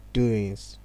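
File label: "doings", Nigerian Pidgin, male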